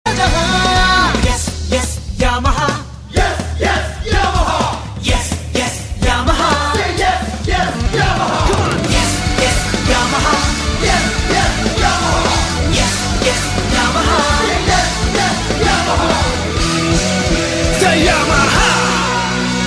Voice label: Say Yamaha

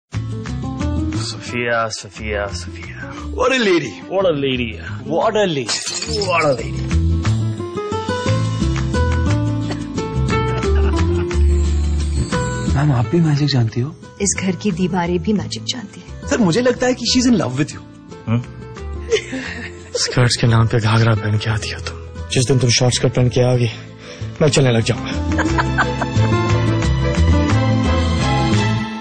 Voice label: Dialog; Guzarish

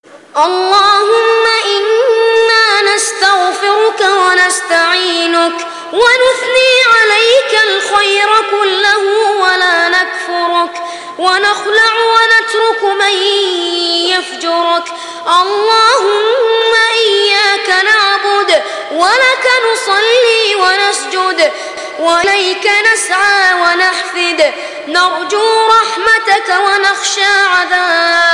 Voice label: Dua Tone

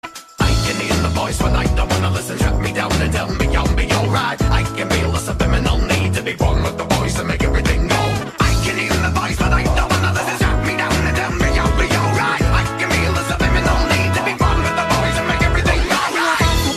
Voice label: Disturbed Voices

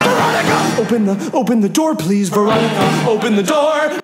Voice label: Yelling VERONICA

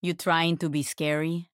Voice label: Trying To Be Scary

